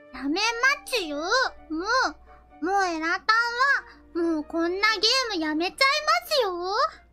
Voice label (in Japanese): 可愛いボイス; 壺